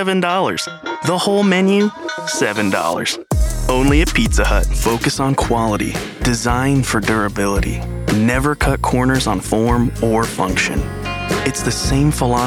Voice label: Commercial, Professional Male Voice Actor; Oregon